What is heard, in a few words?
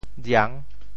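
"jiang6", Teochew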